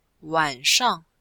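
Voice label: "wan3shang4", Chinese; Cours de Chinois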